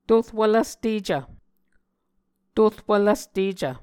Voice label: "torwv-lvstecv", muscogee